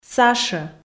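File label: "сашӧ", Komi, female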